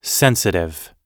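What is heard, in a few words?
"sensitive", English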